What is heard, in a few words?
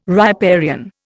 "riparian", Indian